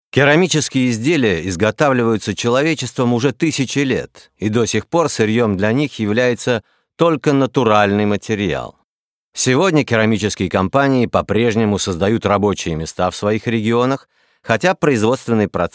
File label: ru voiceover, russisch